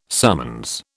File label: "summons", British